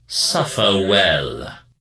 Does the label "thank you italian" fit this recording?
No